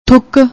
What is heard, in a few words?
"thuk", Punjabi